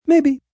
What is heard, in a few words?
"Maybe", male; Response